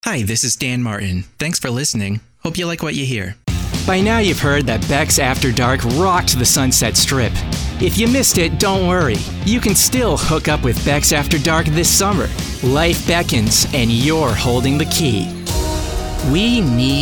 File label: commercial